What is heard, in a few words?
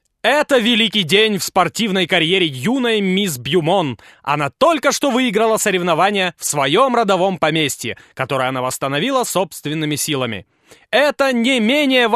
narr